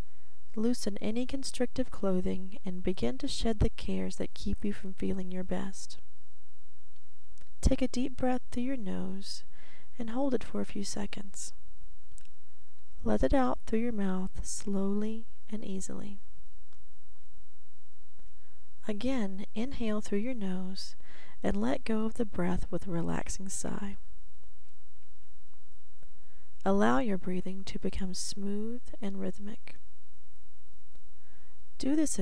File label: In The Forest Female